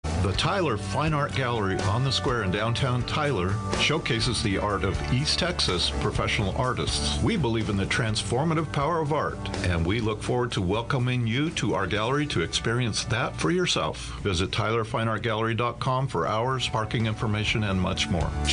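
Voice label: sponsorship ad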